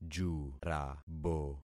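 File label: "jurabo", concatenated syllables; Affixing experiment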